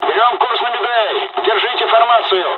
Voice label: dialog, dialogs russian; War Thunder